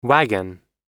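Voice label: "wagon", English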